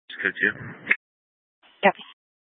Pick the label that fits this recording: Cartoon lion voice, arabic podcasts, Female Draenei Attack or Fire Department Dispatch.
Fire Department Dispatch